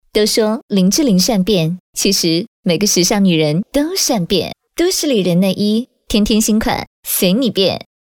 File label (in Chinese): 【模仿】都市丽人女 甜美; 林志玲